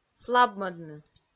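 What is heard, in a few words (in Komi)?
"слабмӧдны", Komi, female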